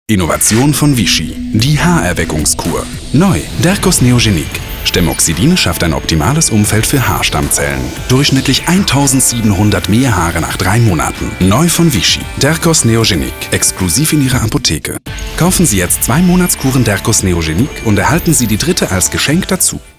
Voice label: TV-Spot; Vichy

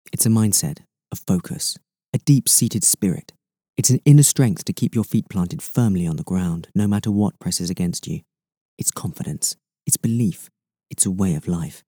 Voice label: Commercial Voice

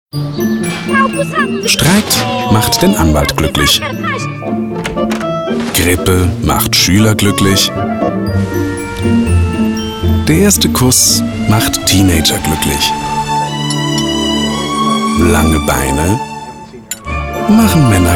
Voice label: TV-Spot; Hotelplan

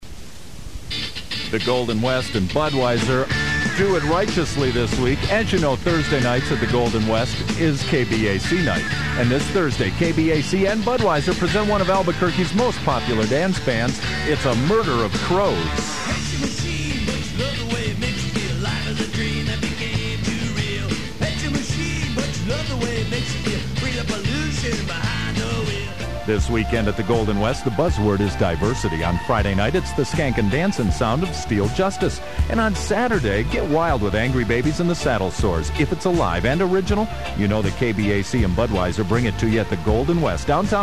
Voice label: radio ad

